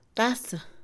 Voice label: taza, Mixe, Mujer; Comida; INALI

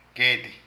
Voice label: Cuero, Zapoteco de Petapa; Ropa; Vocabulary

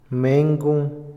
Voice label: ciudadano, Otomí, hombre; Sociales; Vocabulary; INALI

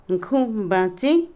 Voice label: hermana menor, Otomí, mujer; Parentesco; Vocabulary; INALI

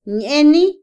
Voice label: jugar, Otomí, mujer; Emociones; INALI

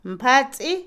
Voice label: ayudar, Otomí, mujer; INALI

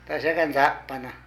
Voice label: Nieta, Zapoteco de Petapa; Parentesco; Vocabulary

